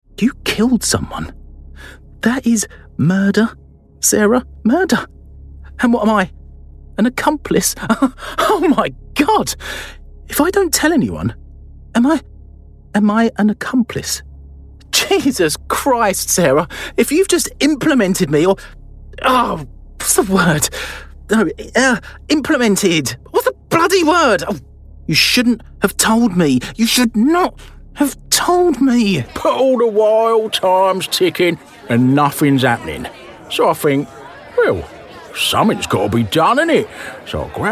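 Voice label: drama, Kent Accented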